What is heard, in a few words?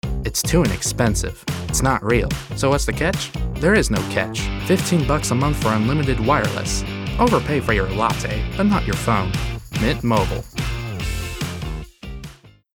Light Humor